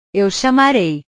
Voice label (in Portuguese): "eu chamarei", portugues; portugues/verbos